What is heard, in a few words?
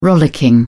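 "rollicking", English, British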